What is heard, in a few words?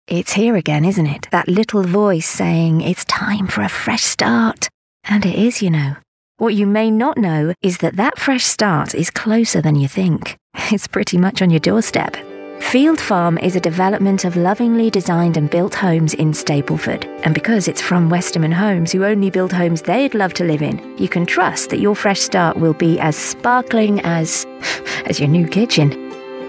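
non-announcery vo ad, female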